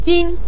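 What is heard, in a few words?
"jin", Mandarin Chinese, neutral tone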